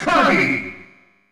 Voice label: Kirby Announcer; SSB

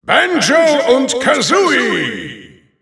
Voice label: German Announcer; Banjo & Kazooie; SSBU

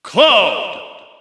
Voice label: English Announcer; Cloud; SSB4; SSBU

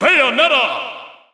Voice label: English Announcer; Bayonetta; SSB4-SSBU

